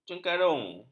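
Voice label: "cengkarung", Malay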